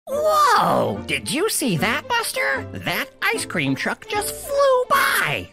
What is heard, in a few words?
Character Cartoon Boy